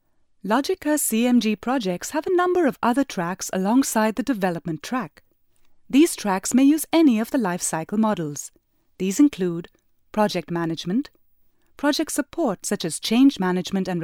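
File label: elearning neutral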